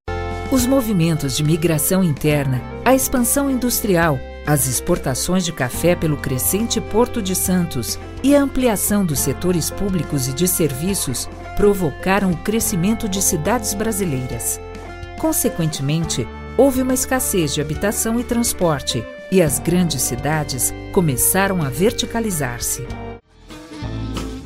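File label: F PT narration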